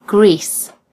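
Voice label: "greece", British English; gcompris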